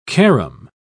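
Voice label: "carom", British English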